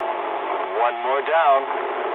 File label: eng player killed enemy mood low, speech eng